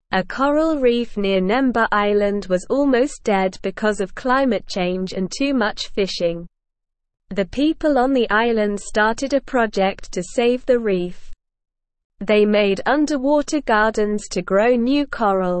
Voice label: English Newsroom Beginner SLOW Reading; Island People Save Coral Reef with Underwater Gardens